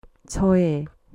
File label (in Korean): "choe", Korean